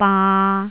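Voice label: "baa3", Cantonese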